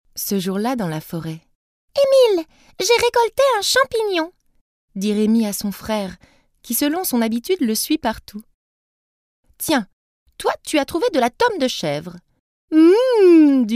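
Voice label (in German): French hoerbuch, voicesamples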